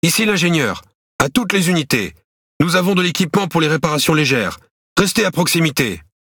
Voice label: REGEN ON, FR; World Of Warships